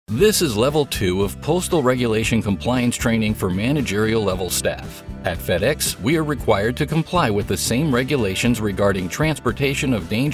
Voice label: E-LEARNING